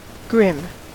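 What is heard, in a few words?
"grim", US English; pronunciations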